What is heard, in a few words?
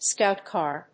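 "scout car", English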